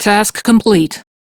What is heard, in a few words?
Crew Task Done, Steel Meridian Female; Dialog; en; Warframe; RailjackCrew